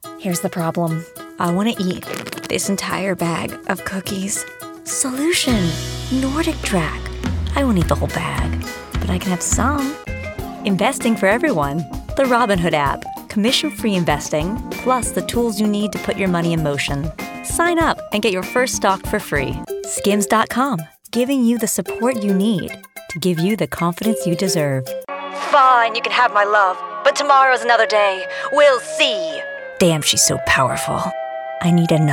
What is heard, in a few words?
commercial, english female voices american accent